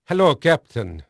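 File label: Dutch male, voice; Pirates Of The Caribbean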